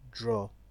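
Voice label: "draw", Nigerian Pidgin, male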